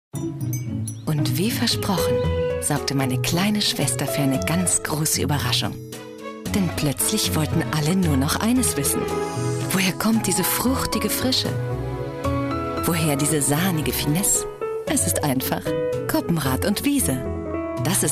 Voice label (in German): werbesampler; LOFT VOICES